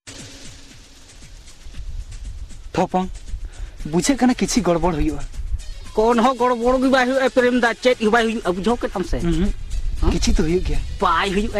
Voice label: Voice Tones